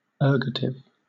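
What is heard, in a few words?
"ergative", British English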